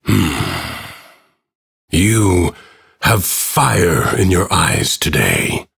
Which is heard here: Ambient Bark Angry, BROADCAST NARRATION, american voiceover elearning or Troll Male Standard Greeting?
Ambient Bark Angry